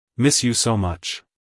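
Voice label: "Miss you so much", American English, male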